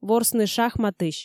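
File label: "ворсны шахматысь", Komi, female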